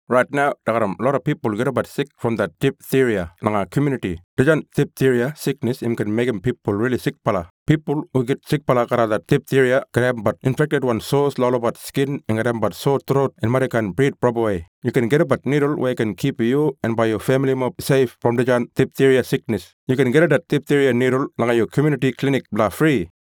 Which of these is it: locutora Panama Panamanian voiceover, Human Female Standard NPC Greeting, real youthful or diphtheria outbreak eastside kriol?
diphtheria outbreak eastside kriol